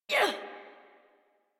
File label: Adult Operator Jump, en; Warframe; New War